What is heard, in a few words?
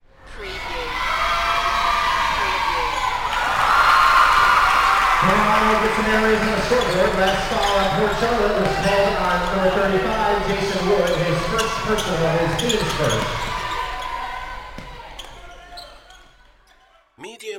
Basketball Announcer Speaking with Crowd Cheering; lynne publishing